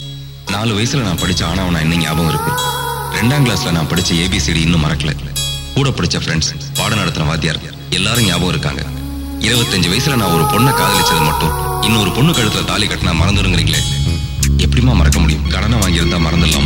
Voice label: Tamil kavithai ringtone

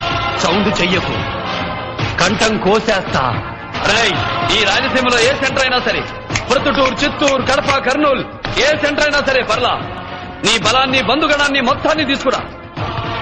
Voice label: telugu dialogue

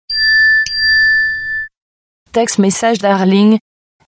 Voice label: french text message

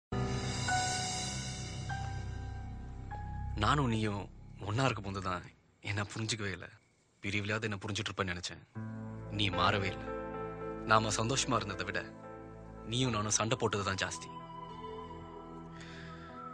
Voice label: tamil dialogue

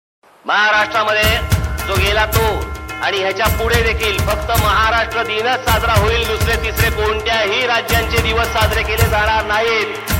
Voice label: dialogue marathi; raj thakre

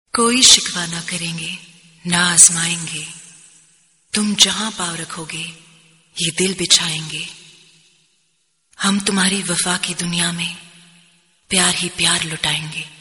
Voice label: romantic shayari

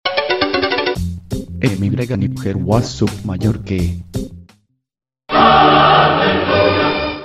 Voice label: spanish man ringtone

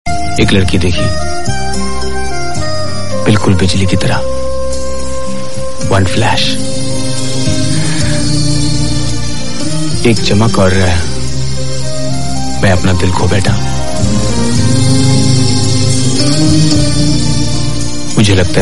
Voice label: dialogue ringtone; rhtdm

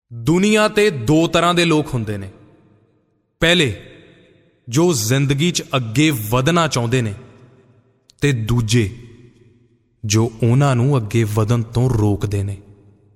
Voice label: punjabi chal oye saying

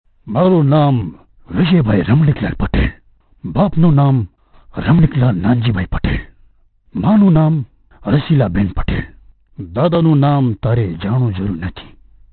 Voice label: funny gujarati; amitabh bachchan

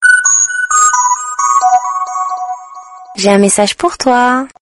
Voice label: french sms

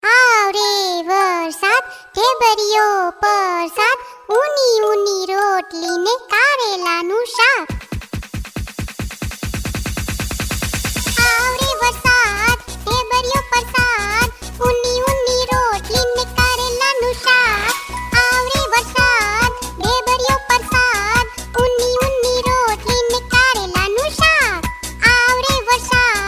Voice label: funny gujarati kid

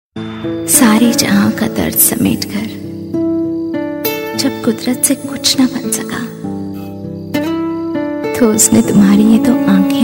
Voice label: love shayari

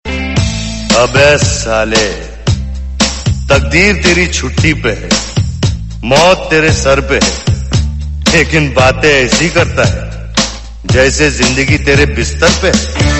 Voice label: dialogue ringtone; sanjay datt

